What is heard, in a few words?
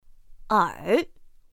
"ěr", pinyin; pinyin2; pinyinji